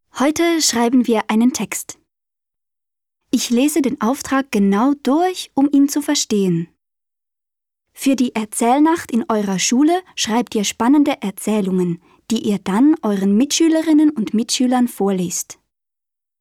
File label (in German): OFF CH DE; hoch